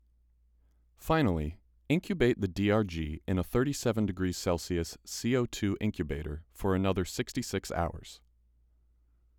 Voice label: voiceover